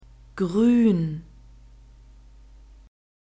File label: "gruen", German; alphabet